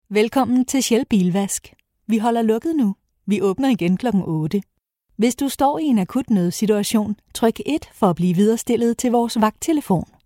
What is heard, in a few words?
danish female voice telephone